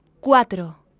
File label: "cuatro", Spanish